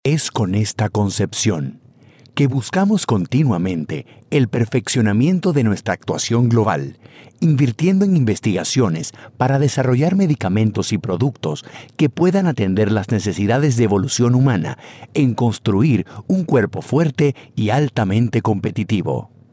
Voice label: panamanian voiceover locutor panama; locutor panameño